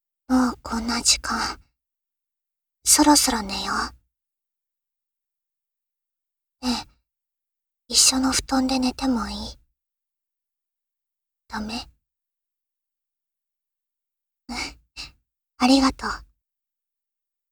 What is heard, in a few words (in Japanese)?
添い寝（右）